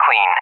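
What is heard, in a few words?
"clean", English speech; AWACS Messages; DCS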